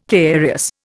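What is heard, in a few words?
"carious", Indian English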